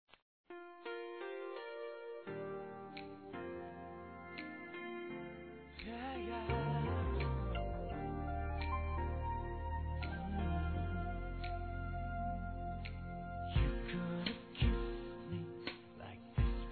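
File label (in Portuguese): Masculino, Telemensagens Aniversários; PADRINHO; Telemensagens 2017; Aniv Familiar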